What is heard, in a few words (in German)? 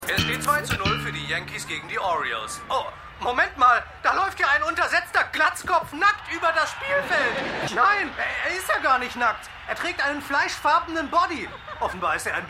TV Sportkommentator; Seinfeld